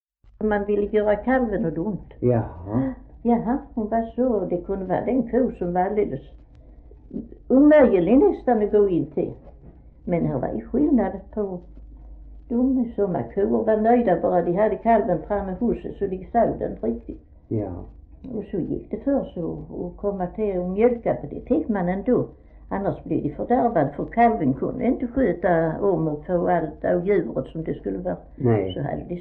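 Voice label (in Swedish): Fleninge Skåne, dialekter; Folkminnen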